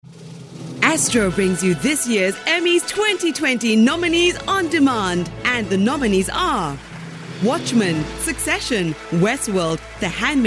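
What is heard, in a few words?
English UK Commercial; GoLocalise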